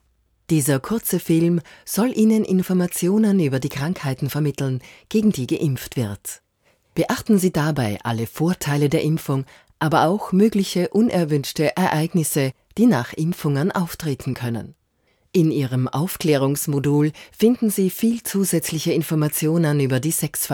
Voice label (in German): erklaervideo medizin